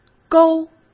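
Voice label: "勾", Mandarin Chinese; hsk; chinese flashcards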